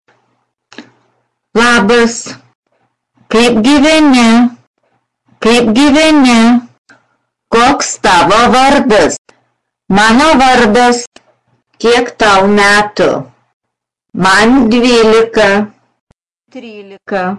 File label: Lithuanian